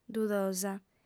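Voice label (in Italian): "dudosa", lingua sarda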